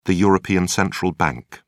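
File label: "european central bank", British English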